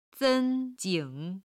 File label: "sen1 jing3", Chinese